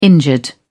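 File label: "injured", British English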